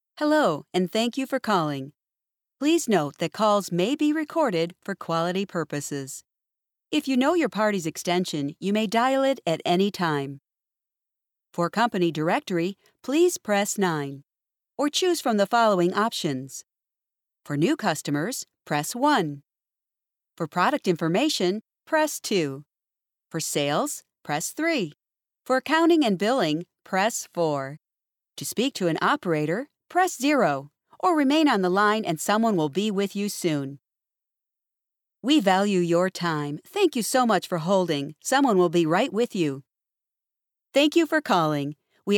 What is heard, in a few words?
IVR